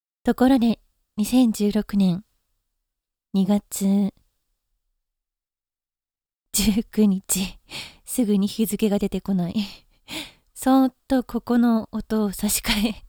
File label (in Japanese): フリートーク